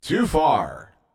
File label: Too Far From District, Announcer